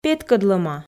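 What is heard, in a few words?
"петкӧдлӧма", Komi, female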